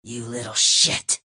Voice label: negative vocalization; adachi

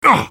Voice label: soldier pain sharp; hl2dm

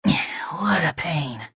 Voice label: negative vocalization; adachi

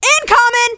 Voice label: scout incoming, femscout

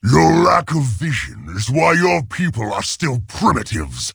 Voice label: jeers; garrosh

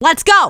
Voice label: scout melee dare, femscout

